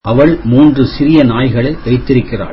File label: tamil she has three small dogs